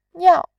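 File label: "niao", Mandarin, tone 4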